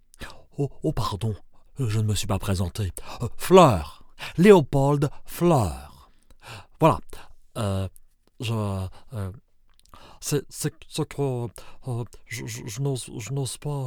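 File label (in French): cartoon, voix off masculine; Je peux adopter tous les styles avec ma voix off masculine naturelle, posée, souriante ou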